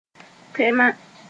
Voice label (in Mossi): "tẽma", Moore